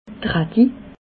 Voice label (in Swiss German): "radi", alsace